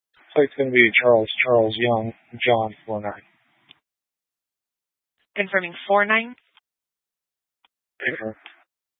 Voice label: Police Department Dispatch, scanner